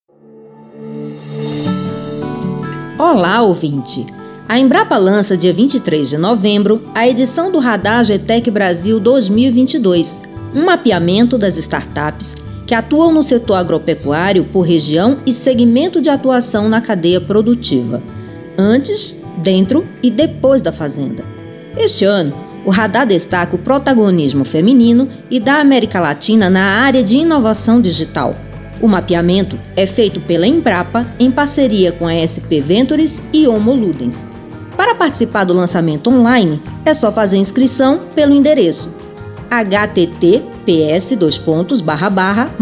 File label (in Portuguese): spot; radar agtech brasil